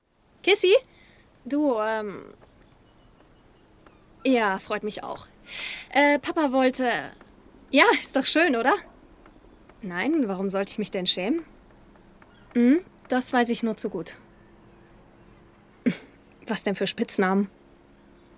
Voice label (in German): Rolle Nachbarin